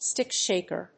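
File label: "stick shaker", English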